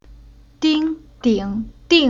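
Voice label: "Ding", Chinese syllable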